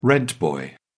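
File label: "rent boy", English, British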